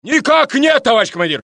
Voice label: Russian; mohaa

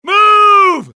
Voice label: suppress, dialogue generic US; mohaa

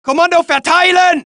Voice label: German; mohaa

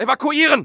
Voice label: fear, dialogue; mohaa

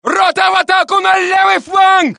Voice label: Russian; mohaa

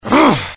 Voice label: anger, dialogue IT; mohaa; attack

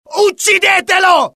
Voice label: alert, dialogue IT; mohaa; attack

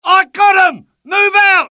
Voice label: suppress, dialogue UK; mohaa

